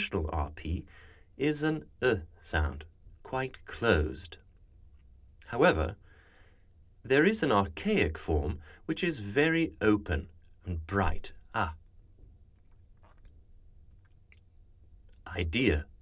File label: Comma Letter, rp dialects speech